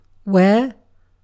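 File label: "where", British English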